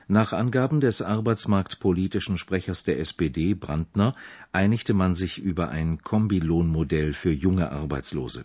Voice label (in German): arbeitsmarktpolitischen Sprechers, deaccented nouns; dirndl adjective noun